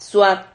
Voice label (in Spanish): "suad", Silabas